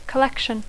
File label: "collection", English